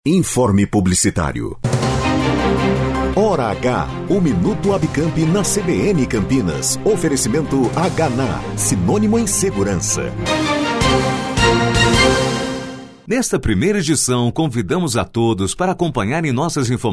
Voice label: spot; habicamp